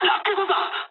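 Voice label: Japanese bailout mood low; War Thunder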